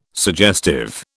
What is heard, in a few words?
"suggestive", British